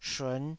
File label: "shun5", taiwanese Syllables